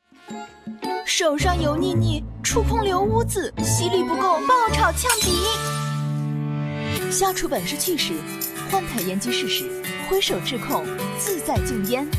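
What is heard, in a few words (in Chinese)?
女, 广告, 油烟机, 普通话; 美的JC506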